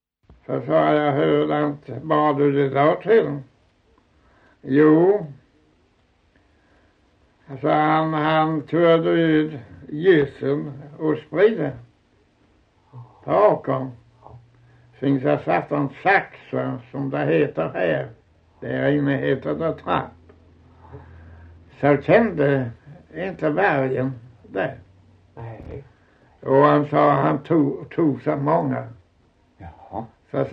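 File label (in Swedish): Osby Skåne, svenska sagor dialekter; Folkminnen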